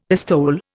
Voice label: "pistole", Indian; Dictionary